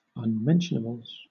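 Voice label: "unmentionables", English; pronunciations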